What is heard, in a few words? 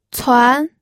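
"cuan", pinyin, second tone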